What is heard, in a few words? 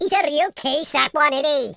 just you wait, Welsh; speech